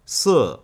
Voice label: "Si", four